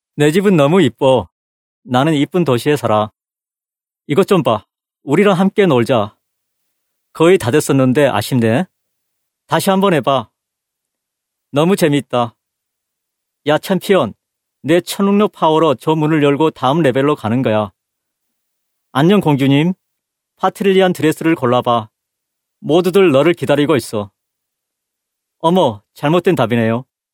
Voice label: Male Korean Toys